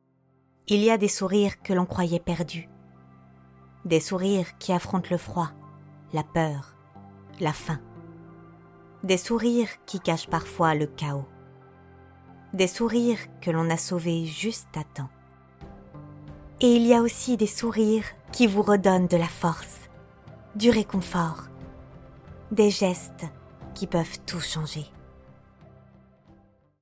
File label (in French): Institutionnel ONG; voice-talent